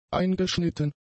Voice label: "eingeschnitten", German; adjectives